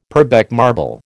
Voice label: "Purbeck marble", American

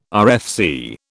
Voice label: "RFC", British

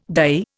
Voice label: "dye", Indian

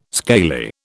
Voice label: "scaly", British

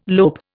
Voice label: "lope", Indian

